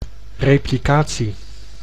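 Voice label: "replicatie", Dutch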